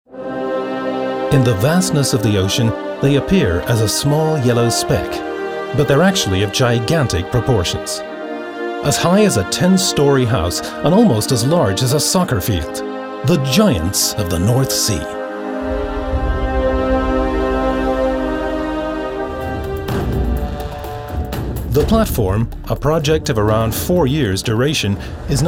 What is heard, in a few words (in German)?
Kommentar; Siemens North Sea